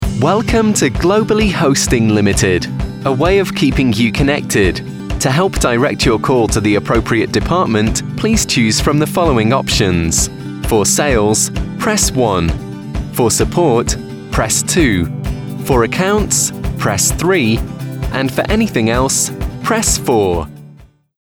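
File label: IVR on hold messaging, Male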